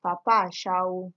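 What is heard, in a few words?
"papa ashawo", Nigerian Pidgin, female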